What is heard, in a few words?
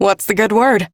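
Ambient Female Brisk, Dialog; Duviri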